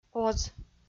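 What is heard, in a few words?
"оз" Komi-Zyrian, female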